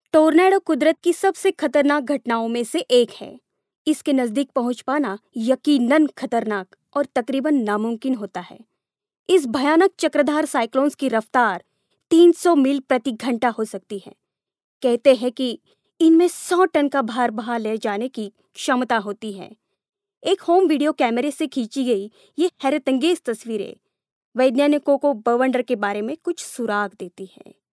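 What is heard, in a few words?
documentary hindi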